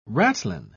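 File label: "ratlin", British English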